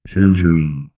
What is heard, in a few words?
a quiet voice that says; numbers